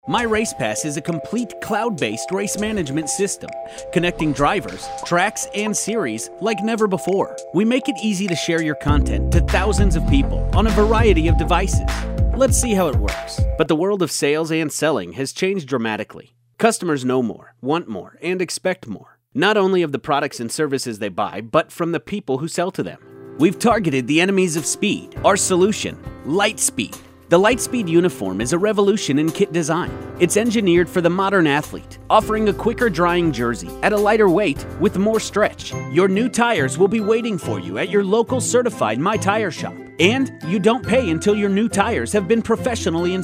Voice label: Narration